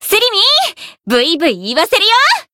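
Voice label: Voice variable chant, Japanese; millecha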